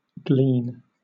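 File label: "glean", British English; pronunciations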